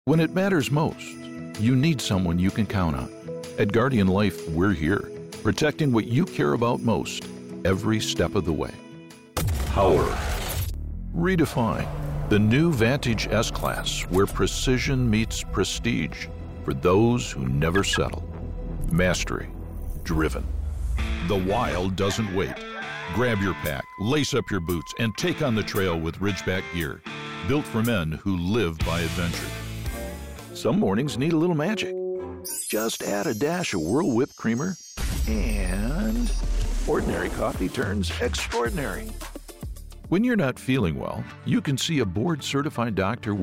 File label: COMMERCIAL